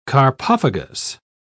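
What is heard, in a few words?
"carpophagous", English, British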